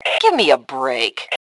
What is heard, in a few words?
Taunt Obnoxious, Female; T2 Female 02